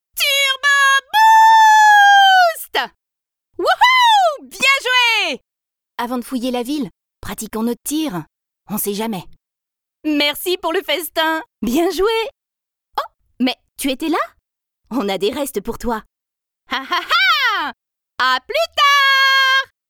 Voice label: Road fighter Immersive Game, french female, sensual